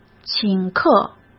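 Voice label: "请客", Mandarin Chinese; chinese-flashcards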